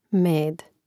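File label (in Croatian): "med" Croatian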